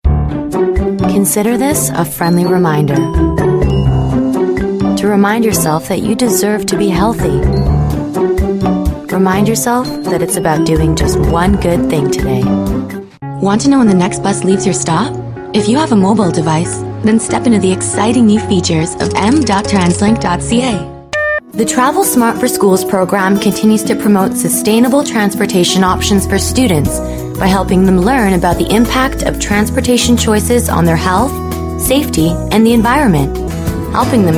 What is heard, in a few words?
english american voice over female narrator